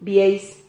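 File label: "bieis", Spanish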